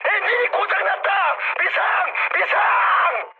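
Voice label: Korean damaged engine mood high; speech; War Thunder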